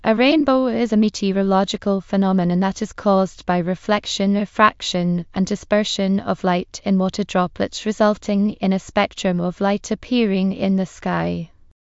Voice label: speaker, en GB; piper voices; vctk